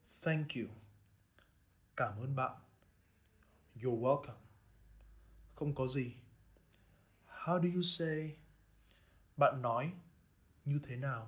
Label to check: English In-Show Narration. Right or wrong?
Wrong